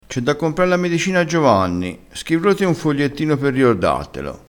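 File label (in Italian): "e de", vocabolario dialettale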